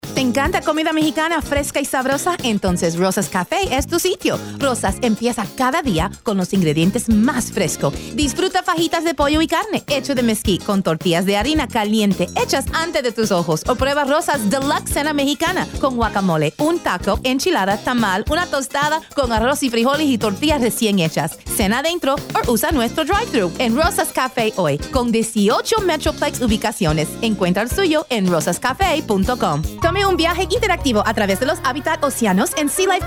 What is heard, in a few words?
vo spanish